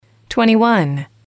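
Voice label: twenty one, English, female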